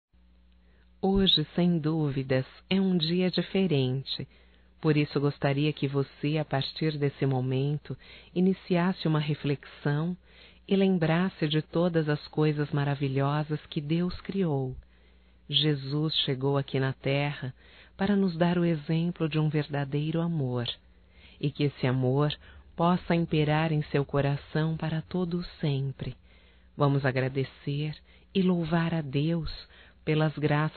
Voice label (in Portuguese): Aniversário Evangélico Feminino, Telemensagens; Aniversarios; Telemensagens 2017